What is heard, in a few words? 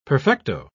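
"perfecto", British English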